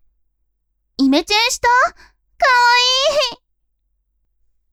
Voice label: women